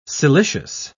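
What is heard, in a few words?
"silicious", British English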